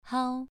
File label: "hao2", pronunciation